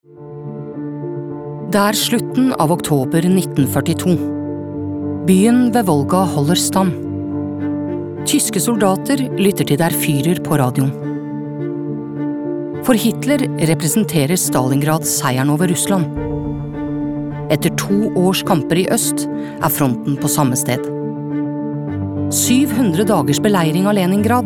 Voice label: no documentary